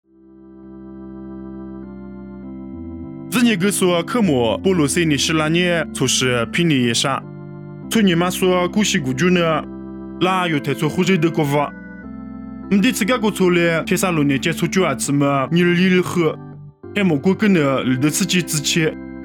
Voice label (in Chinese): 凉山彝语; 地中海庇哩亚; nuosu